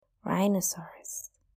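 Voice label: "rhino", English; domestic and wild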